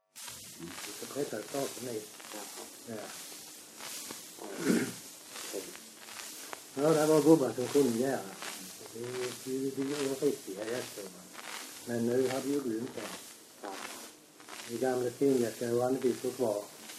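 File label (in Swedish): Öljehult Blekinge, dialekter; Folkminnen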